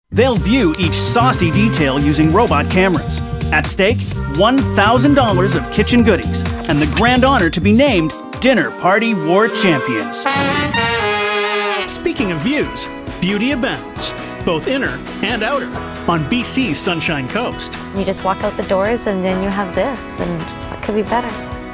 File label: TV Narration; TaDa